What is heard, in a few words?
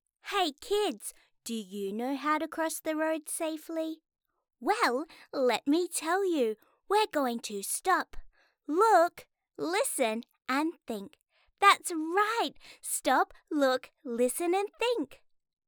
Elearning Crossing the road, en-GB